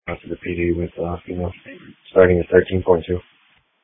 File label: Police Dispatch